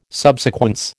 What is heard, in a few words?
"subsequence", American